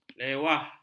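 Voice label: "lewah", Malay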